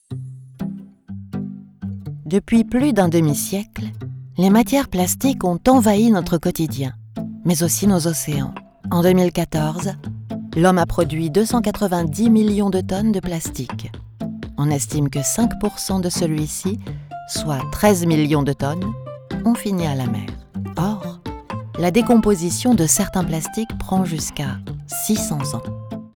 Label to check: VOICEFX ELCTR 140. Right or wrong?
Wrong